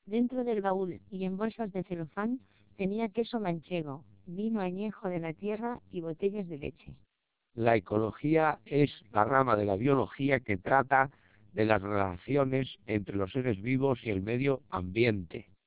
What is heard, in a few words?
twelp short, Spanish, TWELP 1200 bps Vocoder